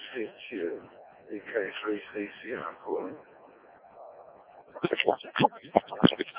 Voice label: decoded speech, 7177KHz